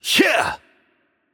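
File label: Attack Heavy, German; de DE; VO; bloom minigame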